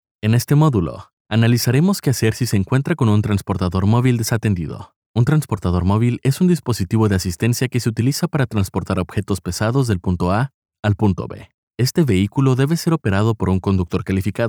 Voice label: spanish latin american e-learning